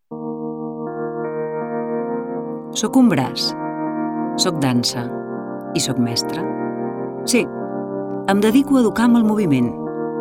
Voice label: female catalan dance comercial